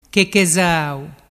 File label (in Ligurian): "chechezau", dialettu